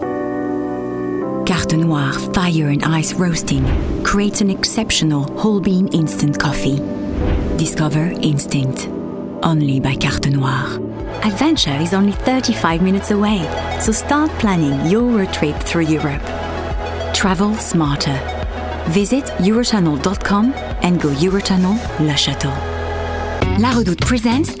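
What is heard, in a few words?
French English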